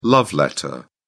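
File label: "love letter", British English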